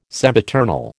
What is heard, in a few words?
"sempiternal", American